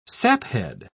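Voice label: "saphead", British English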